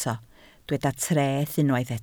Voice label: "treth" Welsh

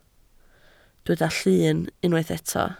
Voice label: "llyn" Welsh

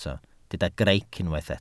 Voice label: "greic", Welsh

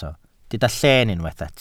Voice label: "lleen" Welsh

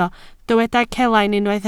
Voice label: "celain", Welsh

